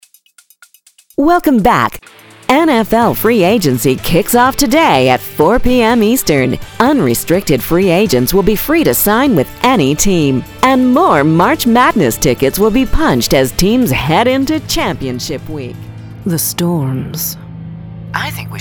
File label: entertainment promos